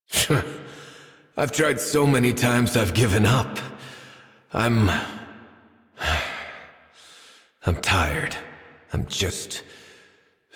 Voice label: Adult, en, Dialog; Rabbit; Warframe; Duviri Paradox Quest

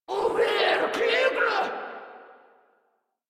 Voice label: Surprised Grineer Female; Warframe

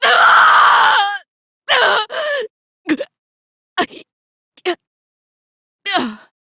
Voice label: Death, Female, Dialog; Railjack Crew; Cephalon Suda

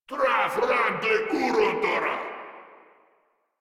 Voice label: Attack, Grineer Male; Warframe

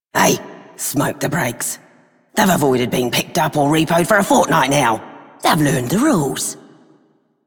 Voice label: Female Repo Agent, Female Two, en; Dialog; Warframe; Solaris Venus

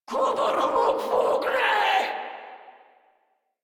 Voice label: Cover Me Grineer Female; Warframe

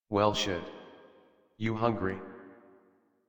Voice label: Adult, en, Dialog; Dorm Cave; Warframe; Duviri Paradox Quest

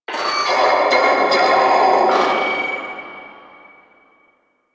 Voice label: Vocal Quest Loudspeaker, Town Escape

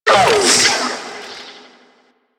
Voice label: Ranged Pain, Barks, Battle; Warframe

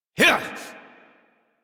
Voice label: Adult, en, Dialog; Horse Sprint; Warframe; Duviri Paradox Quest

